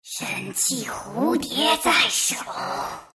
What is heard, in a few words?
item, zh; Dota 2